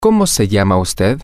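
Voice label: what is your name, Spanish, male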